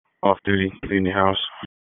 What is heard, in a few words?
Wake Forest Police Tac 1, P25 recordings